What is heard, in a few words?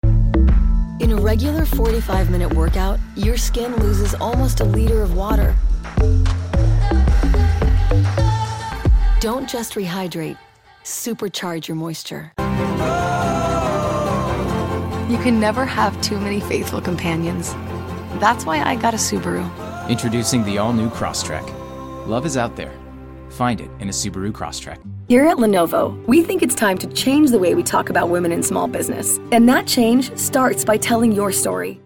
Commercial Demo